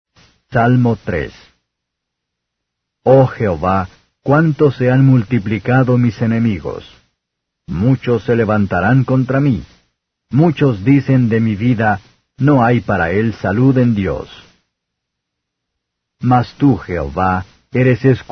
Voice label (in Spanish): salmos spanish